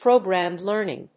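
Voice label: "programmed learning", English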